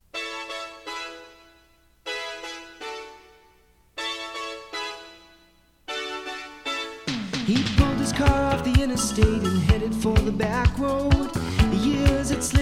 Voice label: Radio Commercials - Beer; Pabst Blue Ribbon